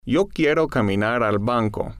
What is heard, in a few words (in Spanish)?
quiero caminar al banco, spanish